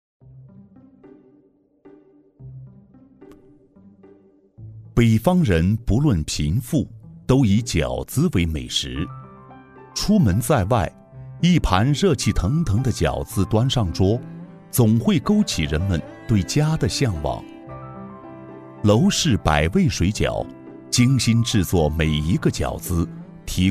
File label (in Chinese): 男 纪录片 舌尖感觉; 大气激情 舌尖感; 龙氏百味饺子